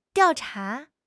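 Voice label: "diao4 cha2", Mandarin Chinese; HSK; HSK4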